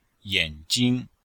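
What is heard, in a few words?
"yan3jing1", chinese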